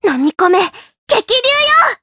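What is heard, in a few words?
Vox Skill, voices, Japanese; Lilia; kings raid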